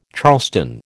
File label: "charleston", American